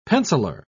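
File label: "penciler", English, British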